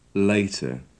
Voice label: "later", English